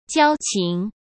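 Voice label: "交情", Mandarin Chinese; chinese flashcards; hsk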